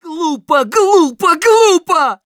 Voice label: negative vocalization, ru; Scout

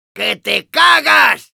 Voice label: Demoman positive vocalization es

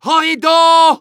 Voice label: Medic battle cry

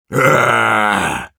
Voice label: negative vocalization, ru

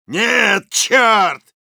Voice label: negative vocalization, ru; Soldier

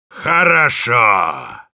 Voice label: good job, ru; Heavy

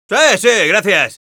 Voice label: thanks, es; Scout

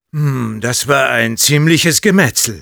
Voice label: jeers, de; Sniper

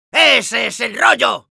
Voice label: positive vocalization, es

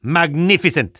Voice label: Spy positive vocalization

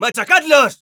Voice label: battlecry, es; Scout

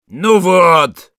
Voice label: jeers, ru; Sniper

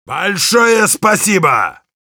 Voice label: thanks, ru; Heavy

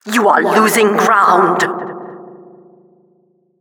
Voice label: Announcer race general

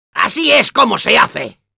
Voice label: positive vocalization es; Demoman

